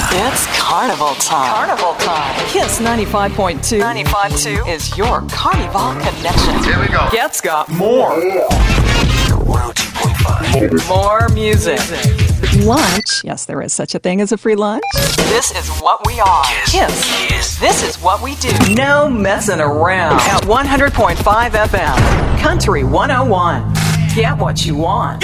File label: Radio Imaging